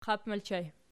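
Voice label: "qap" ergative plural, Archi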